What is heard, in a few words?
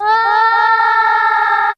"oh", kid echoes; ooh ahh